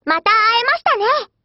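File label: HELLO, Japanese; StenMK2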